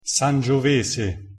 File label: pronunciation it sangiovese